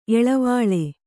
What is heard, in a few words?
"ಎಳವಾಳೆ", Kannada; eḷavāḷe; a young plantain plant.